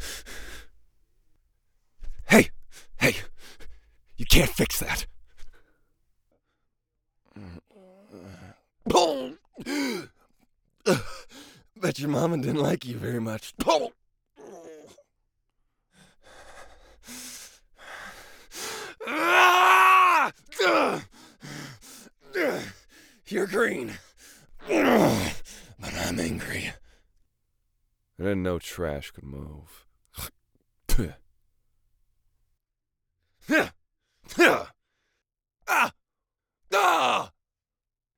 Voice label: Recruit Male